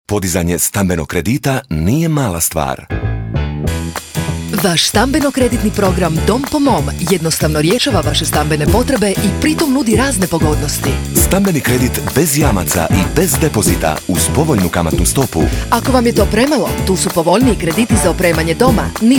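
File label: croatian male commercial; voice demos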